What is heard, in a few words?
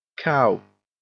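"cow", English; kráva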